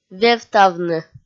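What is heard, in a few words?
"вевтавны", Komi, female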